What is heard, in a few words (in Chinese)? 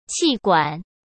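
"气管", Mandarin Chinese; chinese flashcards; hsk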